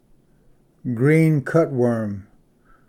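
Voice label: "green cutworm", English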